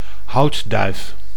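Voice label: "houtduif", Dutch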